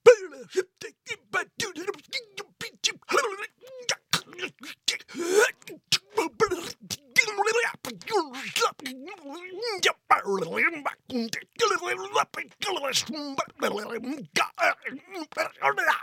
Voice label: Gibberish